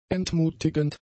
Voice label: "entmutigend", German; adjectives

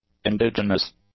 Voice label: "indigenous", English; adjectives

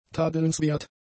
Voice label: "tadelnswert", German; adjectives

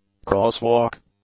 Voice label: "crosswalk", English

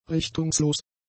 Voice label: "richtungslos", German; adjectives

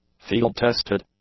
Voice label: "field-tested", english; adjectives

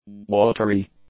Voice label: "watery", English; adjectives

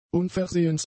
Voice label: "unversehens", German; adjectives

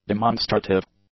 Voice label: "demonstrative", english; adjectives

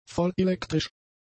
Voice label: "vollelektrisch", German; adjectives

